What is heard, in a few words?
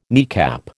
"kneecap", American